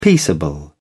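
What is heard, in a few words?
"peaceable", British English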